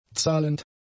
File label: "zahlend", German; adjectives